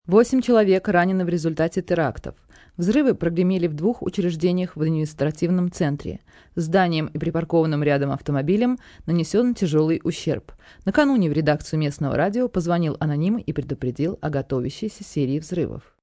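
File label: News Terrorist, Russian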